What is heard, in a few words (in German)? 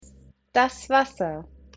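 "Wasser", German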